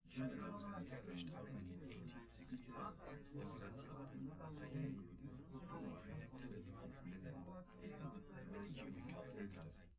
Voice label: male live rec